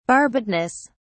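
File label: "barbedness", British English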